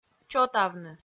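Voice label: "чотавны", Komi, female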